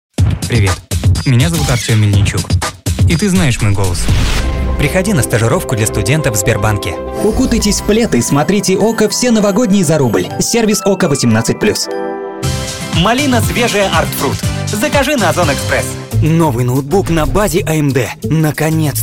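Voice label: Russian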